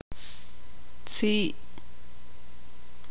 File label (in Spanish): "boca", ixil